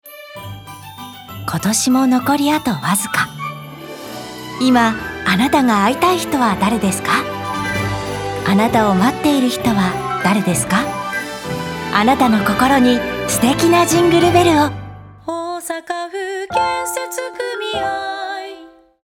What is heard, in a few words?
radio; osaka